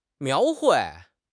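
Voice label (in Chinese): "描绘", Chinese; HSK6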